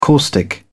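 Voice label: "caustic", British English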